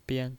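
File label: "bian", Mandarin Chinese, neutral tone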